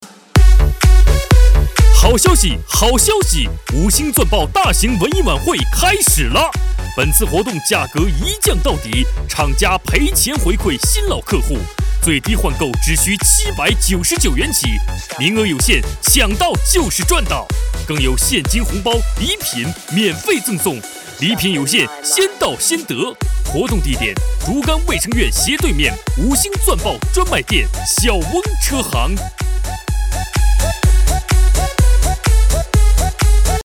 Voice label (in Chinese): 电动车广告叫卖